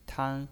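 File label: "tan", Mandarin Chinese pinyin, first tone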